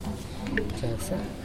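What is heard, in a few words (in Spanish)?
"mezclar", Teotitlán del Valle Zapotec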